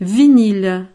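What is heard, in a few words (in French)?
"vinyle", French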